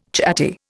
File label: "chatty", Indian